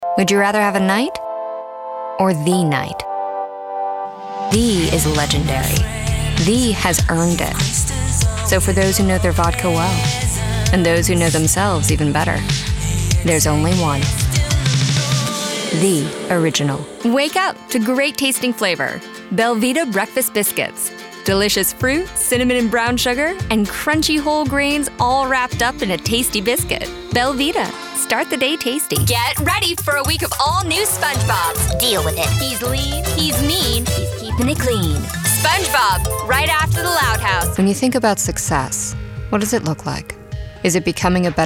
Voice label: Commercial VO